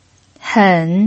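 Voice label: "hen" third tone, pinyin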